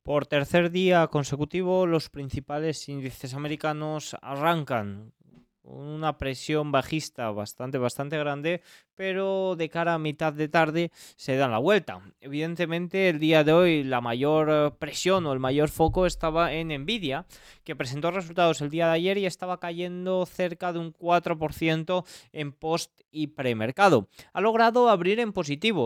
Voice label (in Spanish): radio